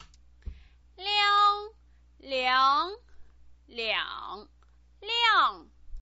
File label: "liang", Chinese pinyin